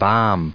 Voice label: "balm", en us